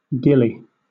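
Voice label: "gillie", British English; pronunciations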